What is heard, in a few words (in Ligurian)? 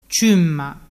"ciumma", dialettu